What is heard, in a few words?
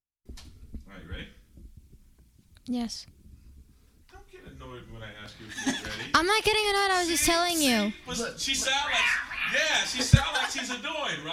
annoyed